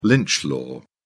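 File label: "lynch law", English, British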